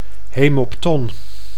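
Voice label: "hemopton", Dutch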